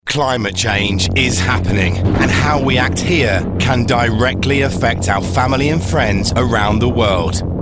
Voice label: serious

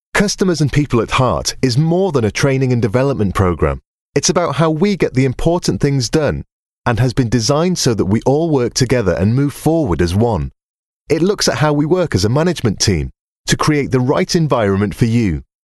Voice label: friendly

